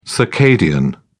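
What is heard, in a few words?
"circadian", British English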